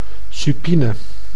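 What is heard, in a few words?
"supine", Dutch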